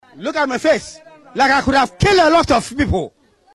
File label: I could have kill a lot of people comedy sound effect